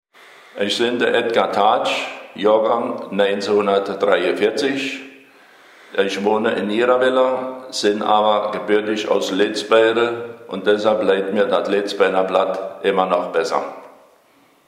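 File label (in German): stellt sich vor, O-Ton Hunsrück; Lötzbeuren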